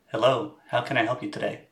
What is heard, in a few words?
mic webcam; Webcam's built-in mic